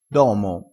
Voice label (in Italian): "domo", vocabolario dialettale